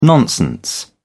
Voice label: "nonsense", en uk

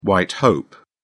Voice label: "white hope", uk

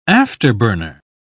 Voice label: "afterburner", uk

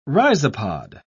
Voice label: rhizopod, uk

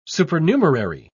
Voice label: "supernumerary", en uk

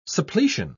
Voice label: "suppletion", en uk

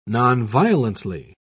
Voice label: "nonviolently", en uk

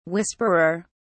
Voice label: "whisperer", uk

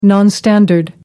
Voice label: "nonstandard", en uk

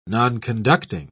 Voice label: "nonconducting", en uk

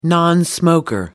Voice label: "nonsmoker", en uk

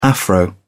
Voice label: "afro", uk

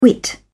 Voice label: "whit", uk

